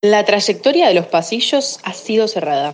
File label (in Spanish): Frase Rioplatense